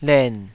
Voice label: "len3", Taiwanese